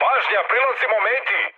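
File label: Serbian near waypoint mood med; War Thunder; speech srb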